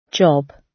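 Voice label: "job", British English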